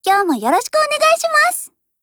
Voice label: HELLO, JP

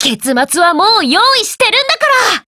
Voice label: SKILL JP

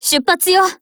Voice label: GO ATTACK, JP; Grizzly

